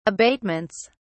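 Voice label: "abatements", British English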